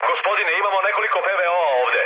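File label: Serbian damaged aa mood med, speech; War Thunder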